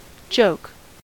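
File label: "joke", US English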